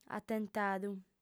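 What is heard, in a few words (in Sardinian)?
"atentadu", female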